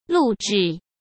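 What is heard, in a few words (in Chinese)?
"录制" Mandarin Chinese; chinese-flashcards; hsk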